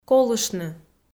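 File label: "колыштны", Komi, female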